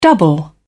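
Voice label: "double", British English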